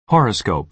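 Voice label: "horoscope", American English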